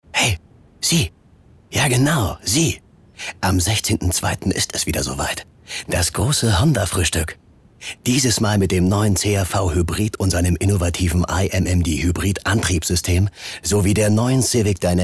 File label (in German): OFF Werbung; Honda Civic